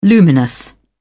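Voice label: "luminous", British English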